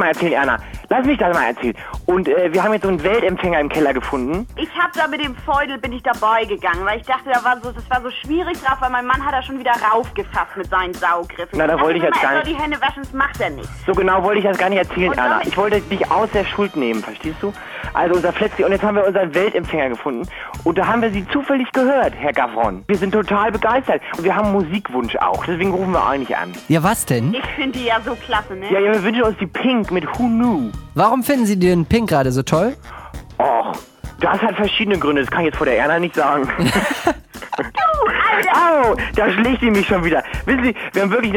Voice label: radio

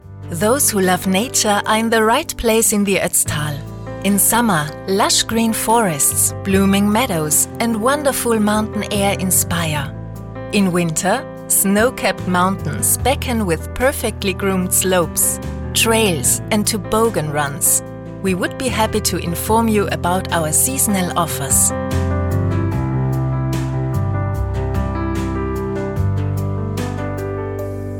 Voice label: engl kurz; Sprecher in Linz; Muttersprache Deutsch

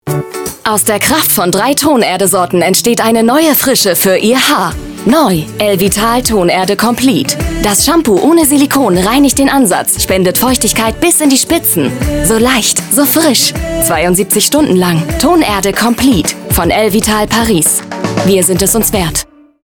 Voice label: Werbung

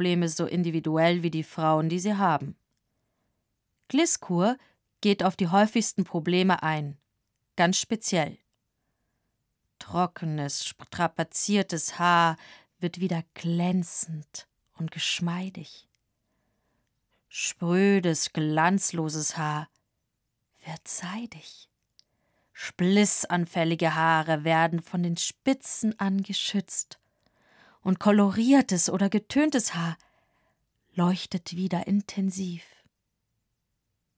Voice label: shampoo werbung

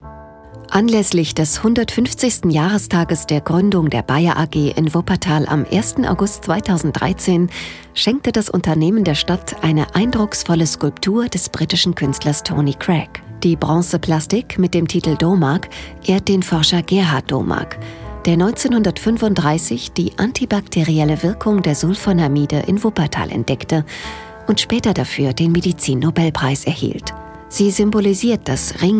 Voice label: Imagefilm

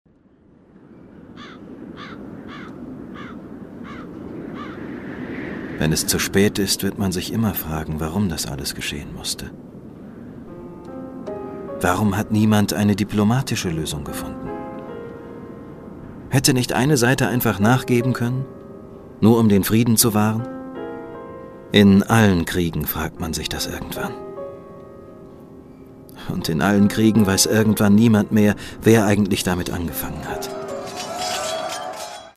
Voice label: hoerspiel; garynes

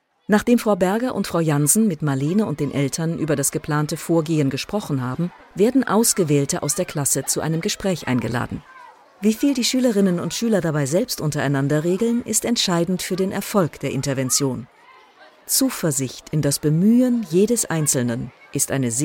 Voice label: erklaerfilm mobbing; Sprecher in Bamberg, Bayreuth, Coburg, Nürnberg; Muttersprache Deutsch; universitaet muenchen

